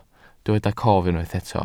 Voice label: "cof", Welsh